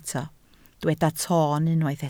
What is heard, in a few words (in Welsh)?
"toon" Welsh